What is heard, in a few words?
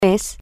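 is permanent, Spanish, female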